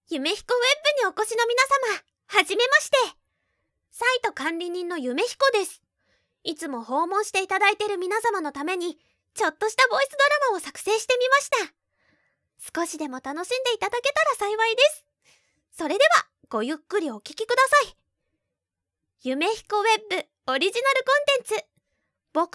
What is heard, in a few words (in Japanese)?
OPトーク, ASMR; 僕オナ